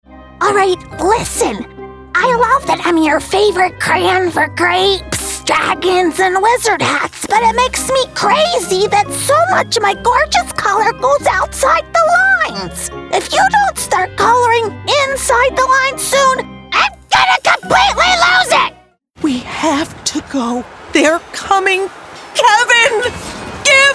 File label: Cartoon; Voice Over Actor Demos